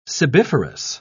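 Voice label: "sebiferous", British English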